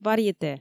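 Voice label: "варъете", Komi, female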